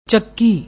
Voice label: "chakki", Punjabi; ਚੱਕੀ